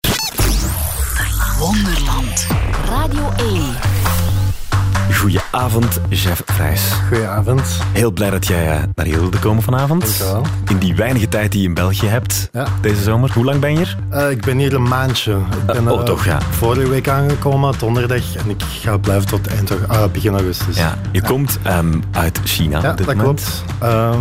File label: interview; New Noise label